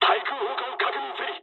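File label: damaged aa mood low, speech japanese; War Thunder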